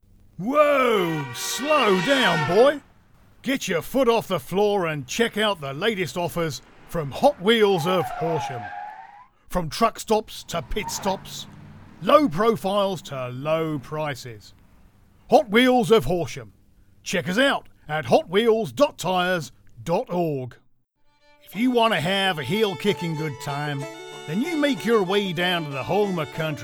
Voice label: commercials

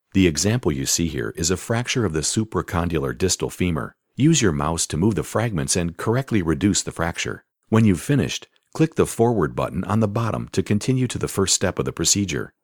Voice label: Medical eLearning

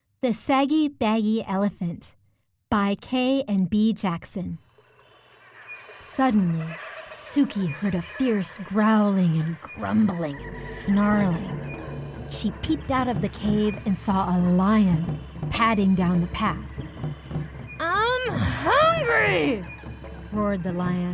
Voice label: Childrens Audio Books

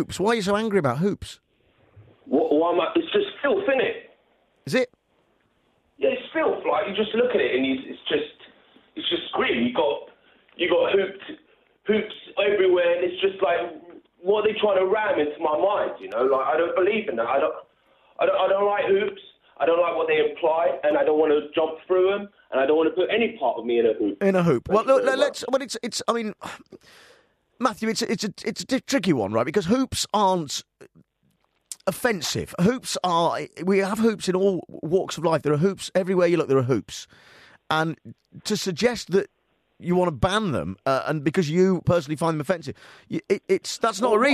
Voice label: Talk Radio

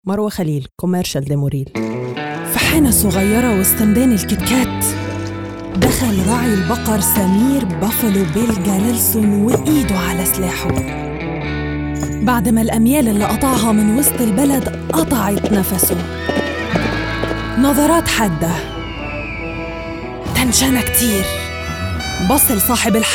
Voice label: Commercial Demoreel Egyptian Arabic

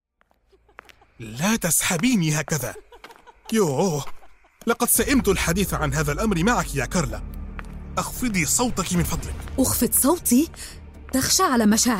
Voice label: Vo acting

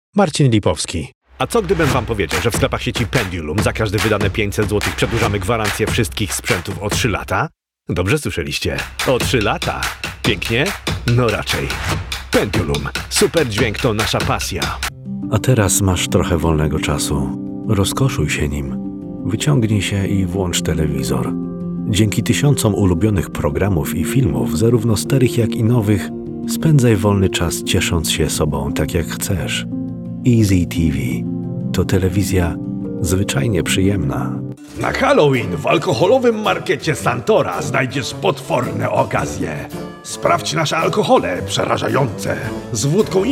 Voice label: Commercial Reel Polish

